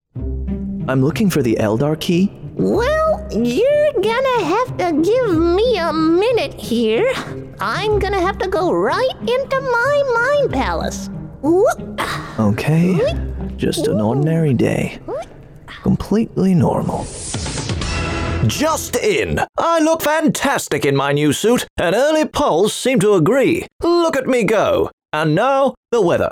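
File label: Gaming & Animation, voice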